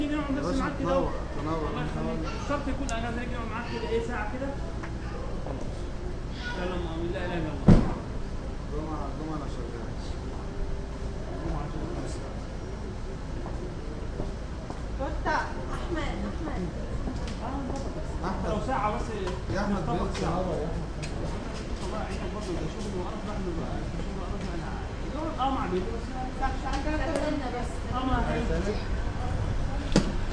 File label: some people talking outside my door